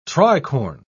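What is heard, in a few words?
"tricorn", British English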